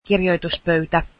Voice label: desk, Finnish

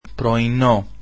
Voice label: "breakfast" greek

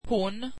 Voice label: swedish she

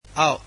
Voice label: "under", Burmese

